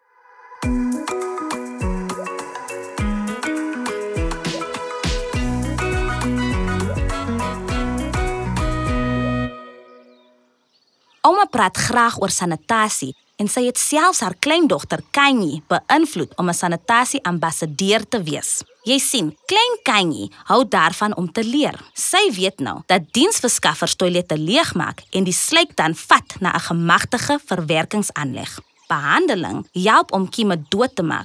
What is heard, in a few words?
Users Treatment Afrikaans, Audio Soundbites; Sanitation; Faecal Sludge Management